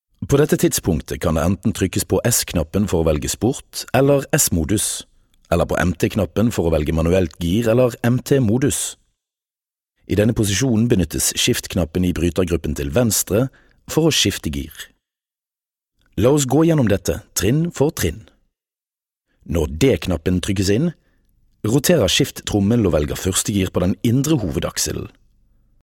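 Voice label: Norwegian, male, corporate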